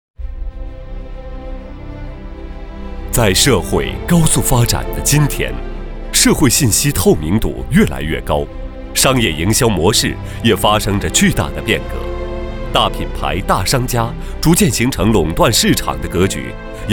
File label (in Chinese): 男 独白 在社会高速发展